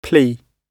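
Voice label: "plea", British English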